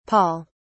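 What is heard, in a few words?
"paul", British English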